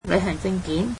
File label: "passport", Cantonese